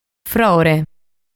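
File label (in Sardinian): "Frore", Sardinian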